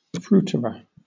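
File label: "fruiterer", British English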